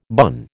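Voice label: "bun", American English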